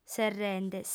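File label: "serrende", female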